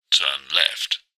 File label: sat nav male voice english says turn left